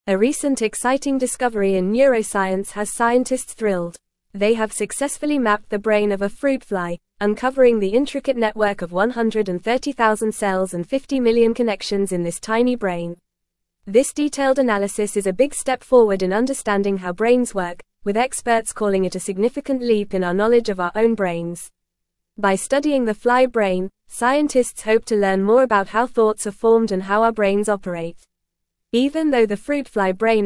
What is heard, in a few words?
English Upper Intermediate FAST Reading; Groundbreaking Neuroscience Discovery Mapping a Flys Brain Connections